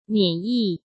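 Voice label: "免疫" Mandarin Chinese; chinese-flashcards; hsk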